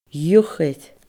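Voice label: "iuchair", Scottish Gaelic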